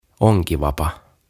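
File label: "Onkivapa" Finnish; Fishing Rod; OTAVA KONSERNI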